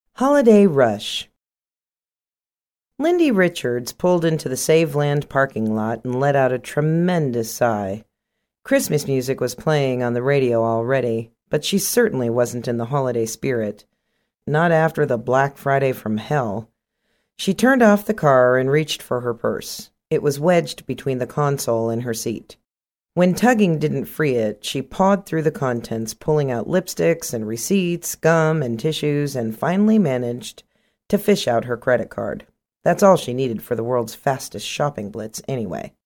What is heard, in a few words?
Audiobook Sample